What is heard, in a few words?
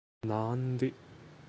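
"ನಾಂದಿ", Kannada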